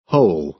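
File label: "hole", American English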